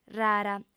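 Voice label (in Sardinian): "rara", female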